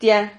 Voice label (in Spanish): "tia", Silabas